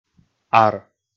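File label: "ар", Komi, male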